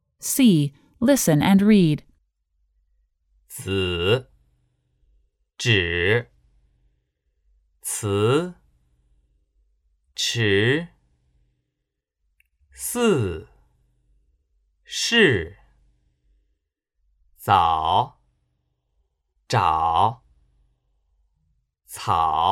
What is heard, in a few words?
Dental Sibilant Initials